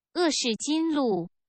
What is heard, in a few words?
"erskine road", mandarin; singapore